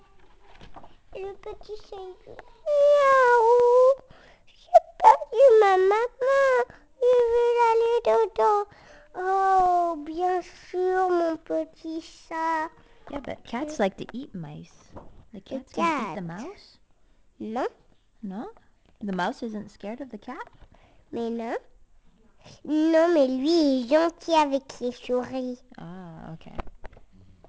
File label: anglais